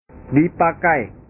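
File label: "dipakai", Indonesian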